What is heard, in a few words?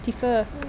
"defer", English